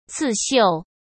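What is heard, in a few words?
"刺绣", Mandarin Chinese; hsk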